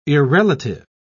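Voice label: "irrelative", British English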